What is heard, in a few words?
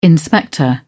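"inspector", British English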